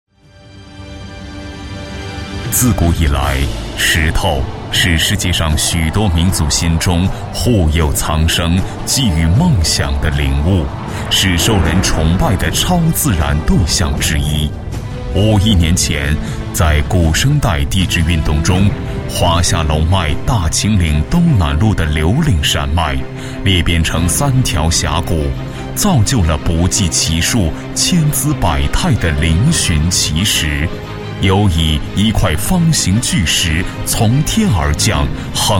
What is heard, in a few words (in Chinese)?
男 专题 纪录片, 国语男声配音; 秦岭秘境上运石